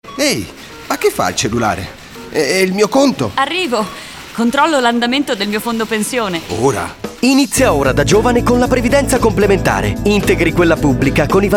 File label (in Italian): Cassiera Radio; Filcams CGIL; previdenza complementare